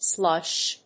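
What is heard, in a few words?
"slush", English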